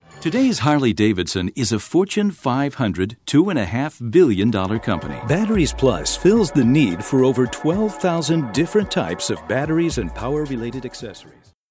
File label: English USA